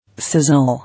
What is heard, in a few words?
"Sizzle", English